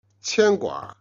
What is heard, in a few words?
"jian", Chinese